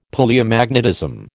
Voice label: "Palaeomagnetism", American

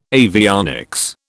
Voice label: "avionics", British

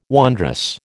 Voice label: "wondrous", American

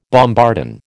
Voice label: "bombardon", American

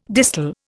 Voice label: "distal", Indian

